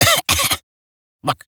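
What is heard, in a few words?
Barks Bird, English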